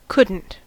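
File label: "couldn't", American English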